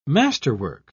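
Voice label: "masterwork", British English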